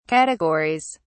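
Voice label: "categories", British English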